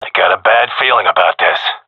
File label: scared, seal diver; vo; agents; Source Model Packs; Counter Strike Model Pack; CS2